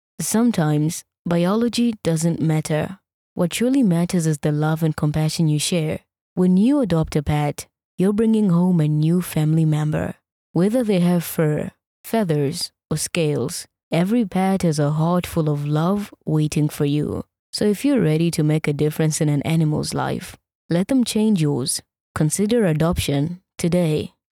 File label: English Softsell